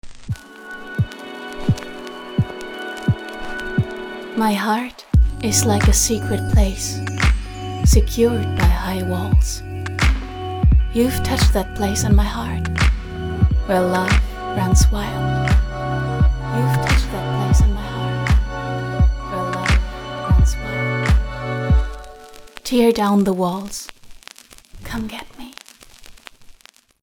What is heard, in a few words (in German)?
Hotelroom Englisch